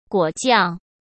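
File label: "果酱", Mandarin Chinese; hsk; chinese flashcards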